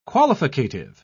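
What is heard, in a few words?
"qualificative", English, British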